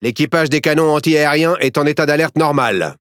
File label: Speech Consumable AAC normal, FR; World Of Warships